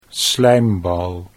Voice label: "slijmbal", Dutch